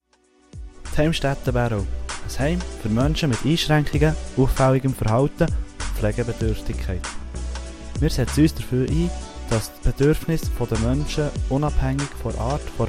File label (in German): Jingle; Heimstätte Bärau